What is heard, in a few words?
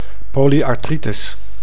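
"polyarthritis", Dutch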